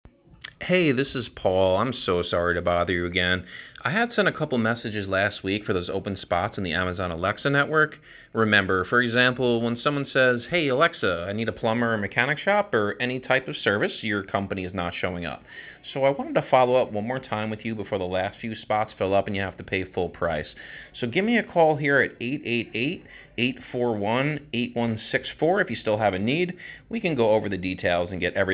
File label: automated voicemail; Sorry for the wasted 38 seconds but you need to hear it before we break it down.